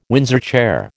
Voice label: "Windsor chair", American